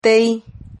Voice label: "tei", Silabas

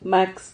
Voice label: "max", Silabas

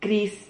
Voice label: "criz", Silabas

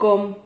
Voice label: "com", Silabas

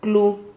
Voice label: "clu", Silabas

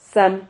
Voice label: "zam", Silabas

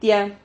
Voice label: "tiha", Silabas

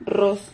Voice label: "rroz", Silabas

